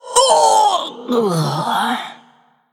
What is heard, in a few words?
Female Undead Death